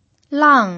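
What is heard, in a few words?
"lang", Mandarin Chinese pinyin, tone 4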